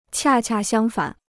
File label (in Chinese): "恰恰相反", Chinese